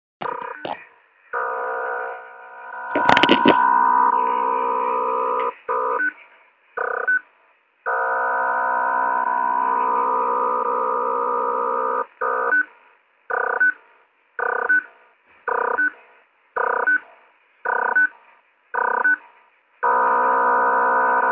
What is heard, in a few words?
40m USB